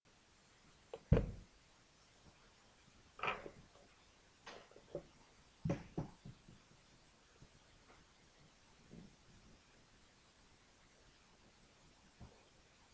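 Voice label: "pencil", spoken word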